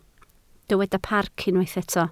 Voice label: "parc", Welsh

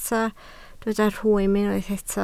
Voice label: "rhwym", Welsh

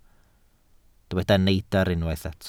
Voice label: "neidr", Welsh

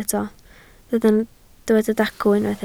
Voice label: "dacw", Welsh

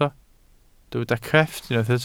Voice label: "crefft", welsh

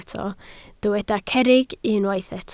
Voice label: "cerrig", Welsh

